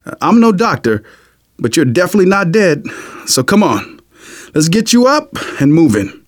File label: revive friend, survivor voice manager